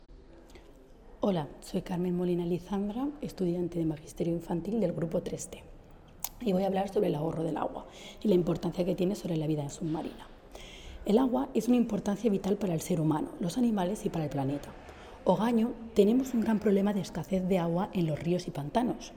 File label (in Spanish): Nota voz; cartografias textiles